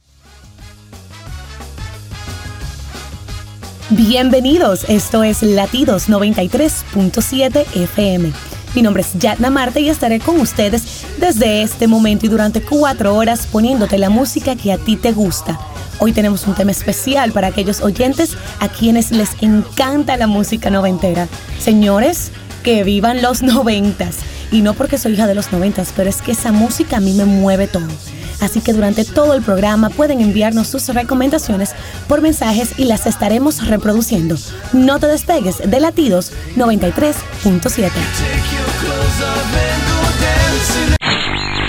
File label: Spanish